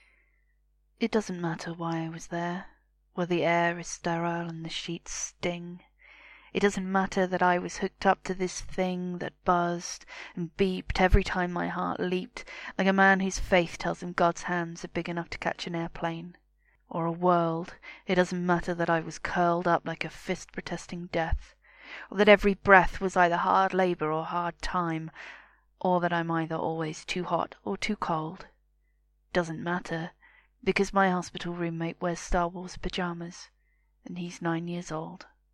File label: [F] The Crickets Have Arthritis [Heartbreaking]; The Crickets Have Arthritis by Shane Koyczan; Cancer